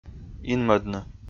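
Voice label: "инмӧдны", Komi, male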